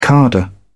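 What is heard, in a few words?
"cadre", British English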